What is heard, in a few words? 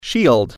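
"shield" English word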